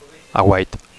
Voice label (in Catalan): "aguait", Catalan; lookout; lookout tower